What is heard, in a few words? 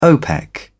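"opec", British English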